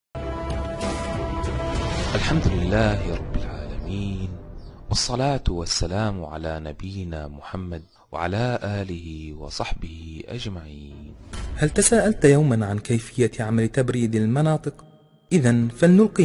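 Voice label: Arabic, male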